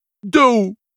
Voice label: Peasant pissed

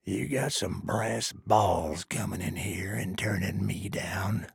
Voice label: trader male dislike quest declined

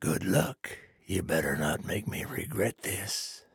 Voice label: trader male dislike quest accepted

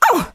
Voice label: hurt

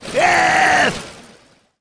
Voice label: Gyrocopter yes attack, en

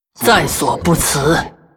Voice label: Hero female demon hunter morphed yes

